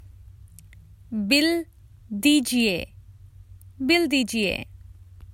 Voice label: Common Hindi Phrases for travel The bill please

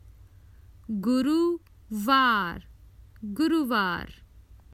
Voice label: Common Hindi Phrases for travel Thursday

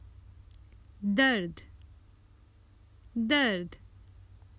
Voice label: Pain, Common Hindi Phrases for travel

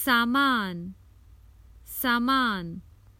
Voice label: The baggage, Common Hindi Phrases for travel

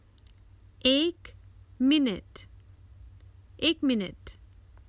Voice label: A minute, Common Hindi Phrases for travel

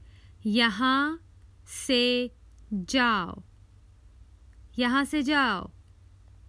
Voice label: Common Hindi Phrases for travel Go away

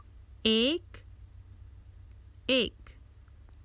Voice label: Common Hindi Phrases for travel One

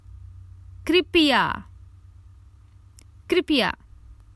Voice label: Please, Common Hindi Phrases for travel

